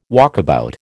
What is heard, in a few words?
"walkabout", American